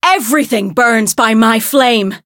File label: Voice variable chant